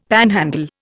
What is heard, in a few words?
"panhandle", Indian; Dictionary